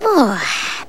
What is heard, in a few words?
"oh", cartoon relief; ooh ahh